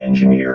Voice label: "engineer", robot vox

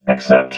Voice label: "accept", robot vox

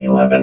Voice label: "eleven", robot vox

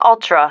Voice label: "ultra", female

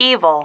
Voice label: "evil", female

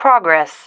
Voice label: "progress", female

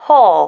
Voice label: "hole", female

